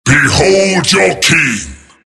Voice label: battlecry; tf; skeleton king

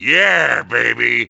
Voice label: happy; wario

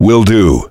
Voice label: heavy yes; tf; duke

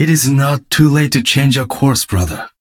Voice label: jeers; genji

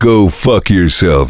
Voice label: heavy battlecry; tf; duke

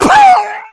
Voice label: been shot, zombie; Left 4 Fortress

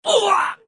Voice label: pain severe; Adachi

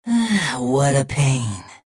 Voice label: jeers; tf; redsun; adachi; spy

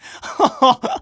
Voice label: scout laugh evil

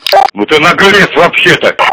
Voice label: hurt; tf; polkovnik

